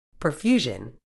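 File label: "perfusion", medical English, British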